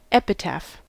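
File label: "epitaph", US English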